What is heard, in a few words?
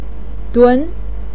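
"dun" Mandarin Chinese, second tone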